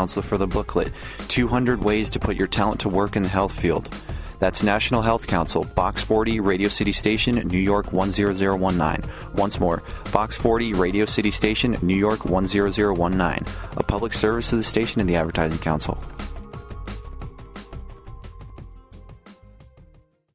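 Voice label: psa with music bed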